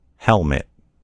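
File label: "helmet", American English; gcompris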